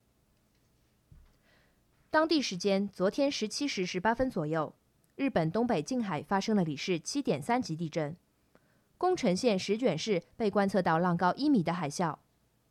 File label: news